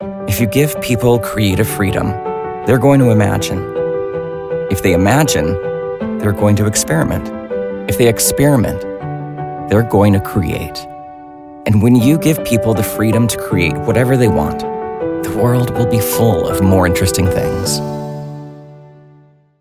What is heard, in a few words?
Authentic Thoughtful Storyteller; Voice Over Read Styles